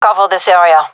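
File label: request hold, gendarmerie female; vo; agents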